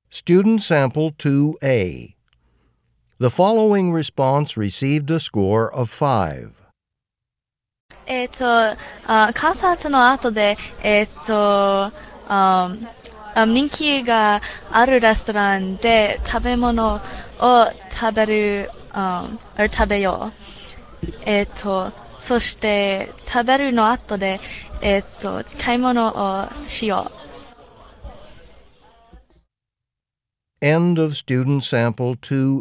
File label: japanese conversation; Conversation 2 Sample A (Score of 6)